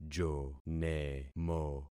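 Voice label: "jonemo", concatenated syllables; Affixing experiment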